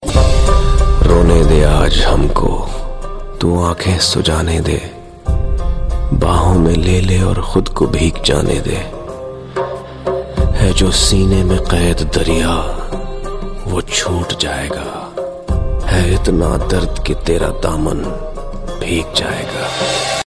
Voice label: Poetry